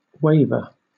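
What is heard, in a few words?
"waver", British English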